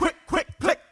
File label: "quick quick click", voice; ooh ahh